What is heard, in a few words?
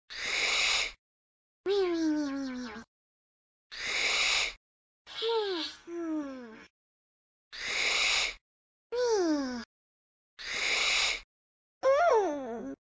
Sleep Talking; yoshi; sm64coopdx; char-select-extra-chars; [CS] Extra Characters